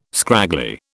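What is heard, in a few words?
"scraggly", British English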